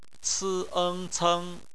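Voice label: "ceng", bopomofo, chinese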